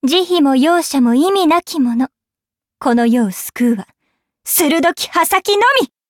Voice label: upgrade, ja; Cookie Run